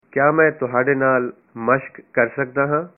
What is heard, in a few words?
Can I practice with you, punjabi